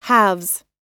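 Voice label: "halves", American English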